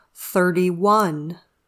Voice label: "thirty one", English

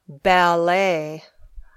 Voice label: "ballet", English

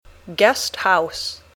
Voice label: "guest house", English

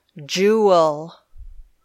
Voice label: "jewel", English

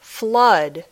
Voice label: "flood", English

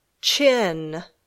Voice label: "chin", English

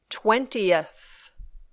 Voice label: "twentieth", English

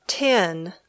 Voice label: "tin", English

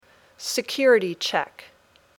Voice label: "security check", English

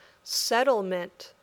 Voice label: "settlement", English; The City

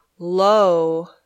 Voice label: "low", English

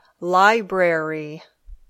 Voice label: "library", English

